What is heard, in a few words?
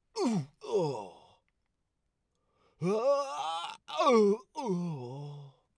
Stairs Landing Impact Dude Bro Voice; Character Voice Demos